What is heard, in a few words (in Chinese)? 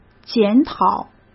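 "检讨", Mandarin Chinese; chinese-flashcards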